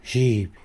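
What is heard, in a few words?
"knee", Tlacolula Zapotec; zhiby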